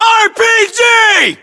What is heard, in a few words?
US threat rpg, battlechatter, english